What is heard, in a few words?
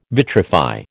"vitrify", American